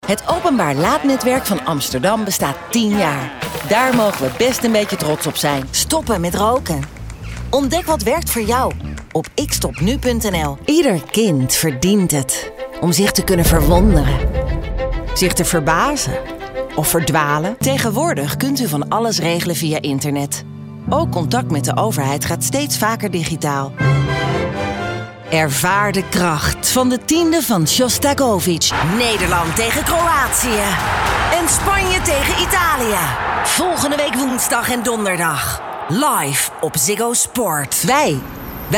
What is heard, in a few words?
allround, NL